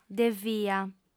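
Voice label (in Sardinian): "devia", female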